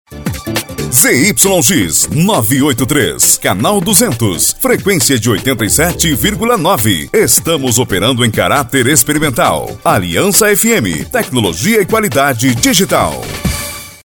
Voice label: vinhetas voz locutor prefixo radio

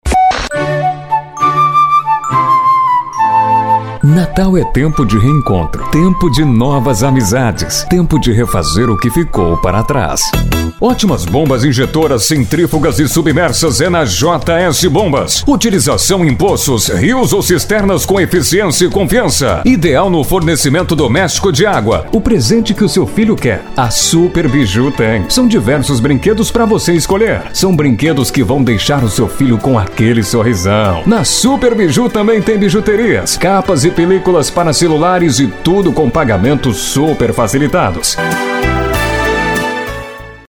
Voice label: locutor

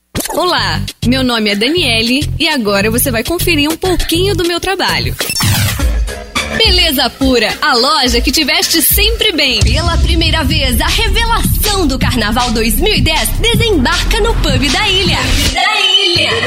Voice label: locutora

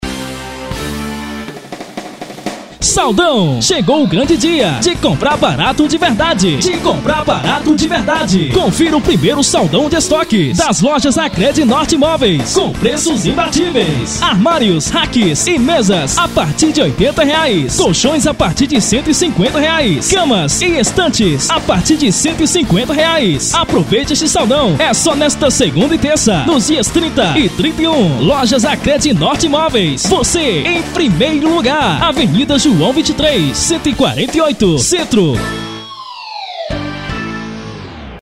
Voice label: offs locucao; gstudiomidia

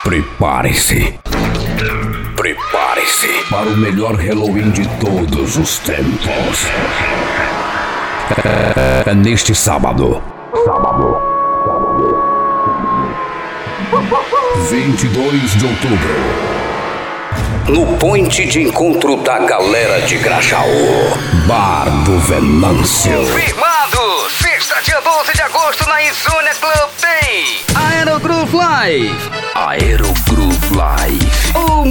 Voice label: locução brasil; banco de vozes

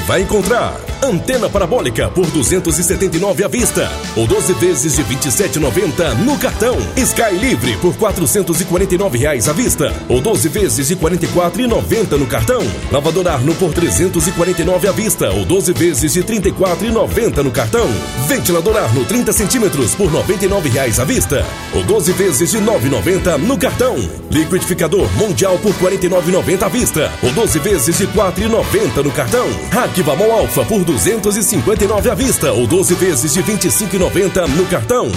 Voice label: spot quinzena de preços baixos

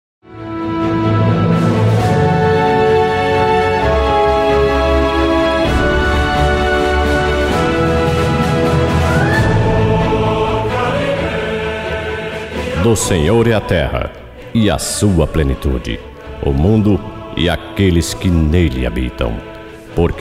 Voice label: oração salmo 24

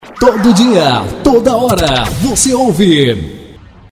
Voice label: vinhetas

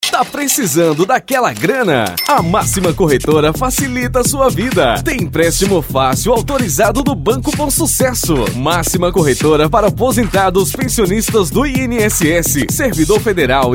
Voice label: spot institucional

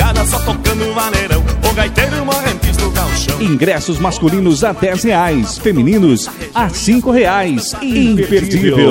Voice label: vinheta baile de carnaval

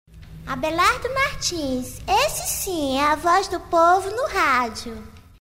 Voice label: vinheta crianca fala

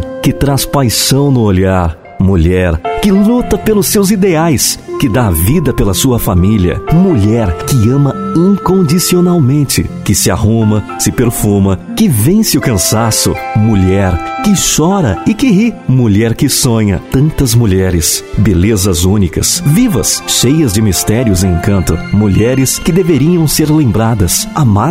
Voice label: voz para mensagens

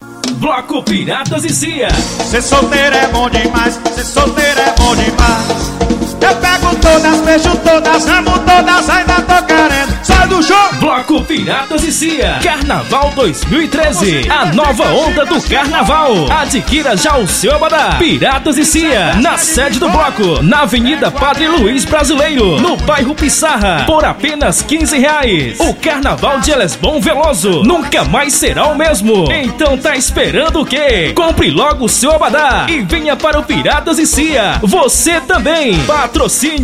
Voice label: offs locucao

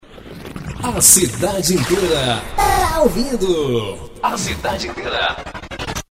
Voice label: vinhetas a cidade inteira ta ouvindo

